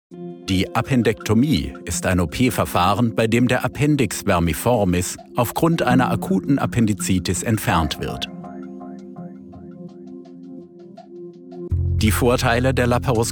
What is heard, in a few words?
german corporate videos